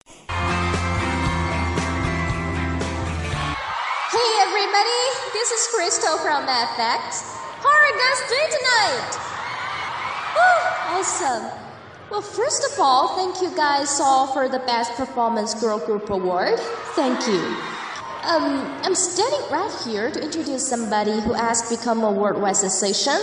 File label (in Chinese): 英文 晚会英文主持